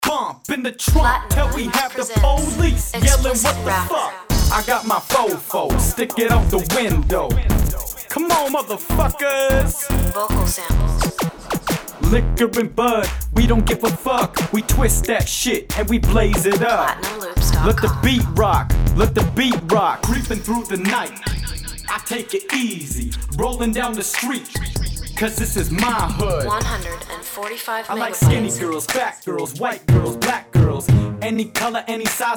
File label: explicit rapper vo